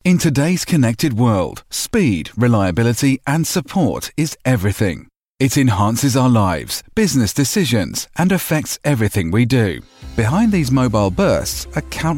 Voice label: Corporate

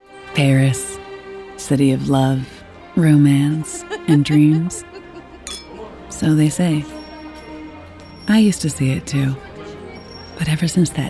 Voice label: Animation

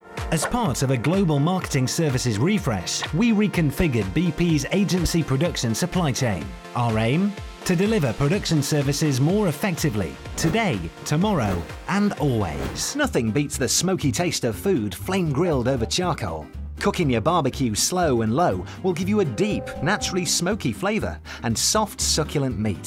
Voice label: Explainer

